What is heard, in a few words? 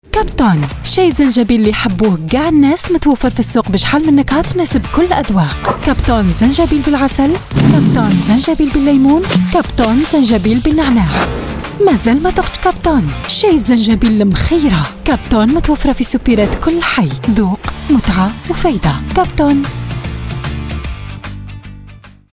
Algerian ads, voiceover